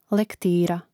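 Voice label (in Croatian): "lektira", Croatian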